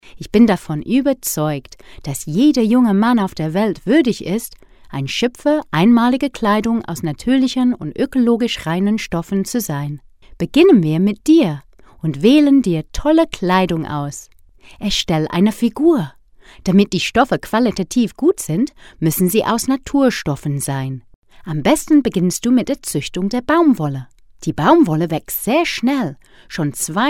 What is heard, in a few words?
Voice Artist ComputerGame German